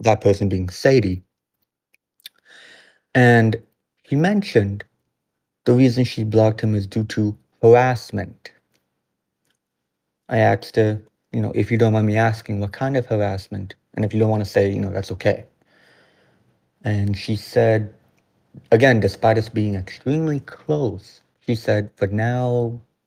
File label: voice message